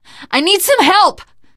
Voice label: help, teengirl; voice; source engine; left 4 dead 2; survivor